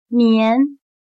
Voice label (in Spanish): "棉", Chino